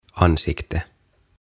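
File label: "Ansikte", "Kasvot", Swedish, Finnish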